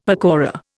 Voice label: "pakora", Indian; Dictionary